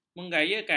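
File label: "menggayakan", Malay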